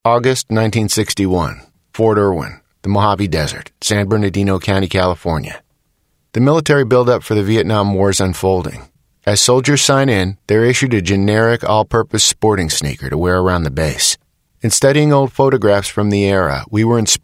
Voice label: Natural Speak